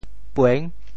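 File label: "pueng6", Teochew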